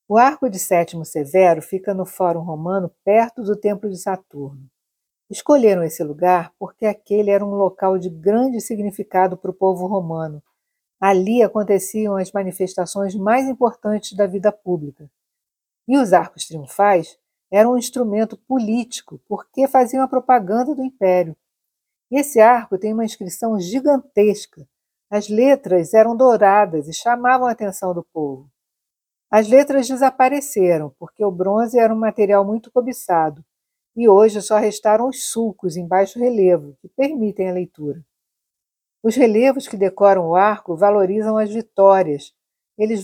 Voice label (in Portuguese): Audioguia; Arco de Setimo Severo; Localizacao